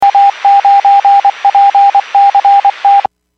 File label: Skywarn 146.67; Stevens Point